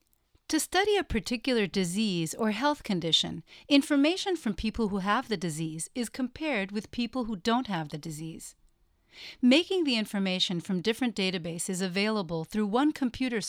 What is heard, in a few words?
Eng; Utah BioHealth Initiative